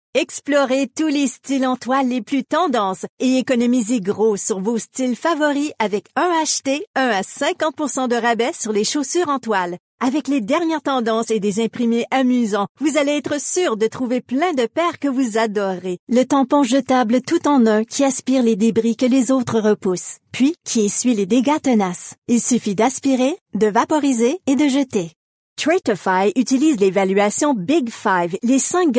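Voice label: Canadian French Commercial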